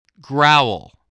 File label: "growl", spelling